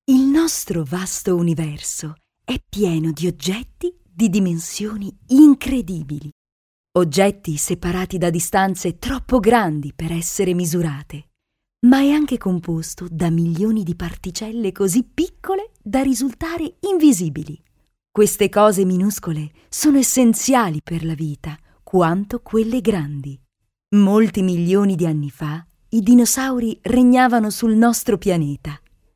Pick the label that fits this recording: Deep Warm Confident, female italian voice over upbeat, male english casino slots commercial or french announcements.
female italian voice over upbeat